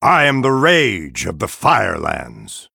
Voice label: Male Night Elf Picked